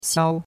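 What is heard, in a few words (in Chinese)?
"xiao1" Chinese; Cours de Chinois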